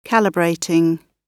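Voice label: "calibrating", British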